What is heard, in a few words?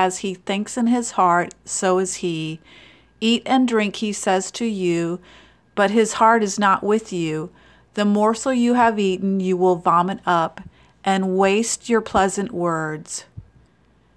Childrens Bible Verse